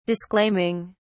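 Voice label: "disclaiming", English, British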